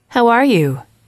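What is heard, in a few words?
"how are you", English, female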